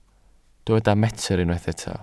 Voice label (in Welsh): "metr", Welsh